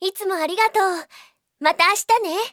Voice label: winclose, Anime Girls; Japan; Windows; Bing; Nanako